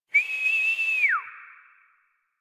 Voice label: Horse Sprint Adult; Warframe; Duviri